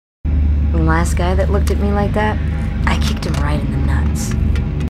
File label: marines female